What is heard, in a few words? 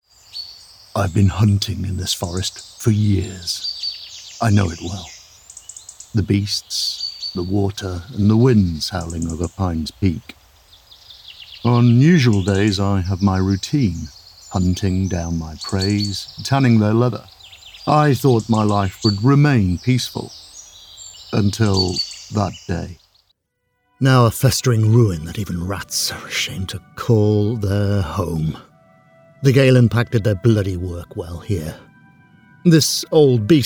Sombre Reflective